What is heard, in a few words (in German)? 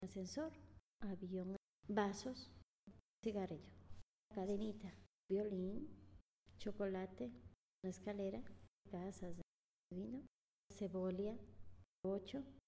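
Zusammengefugte Worter Schnell Spanisch, female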